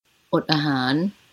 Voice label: medical fast, thai